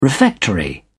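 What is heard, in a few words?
"refectory", English, uk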